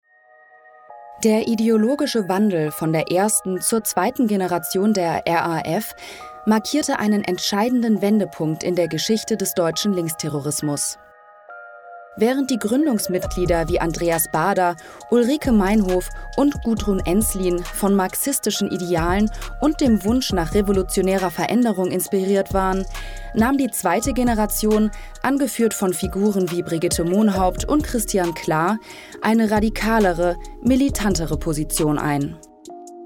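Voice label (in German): Doku, Sprecherin; RAF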